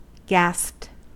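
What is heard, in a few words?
"gasped", American English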